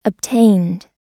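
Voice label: "obtained", US English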